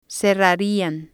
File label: "cerrarian", spanish